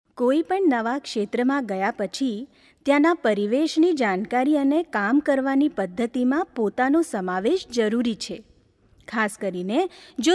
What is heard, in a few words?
Gujrati